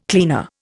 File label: "cleaner", Indian